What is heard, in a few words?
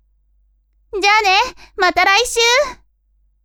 women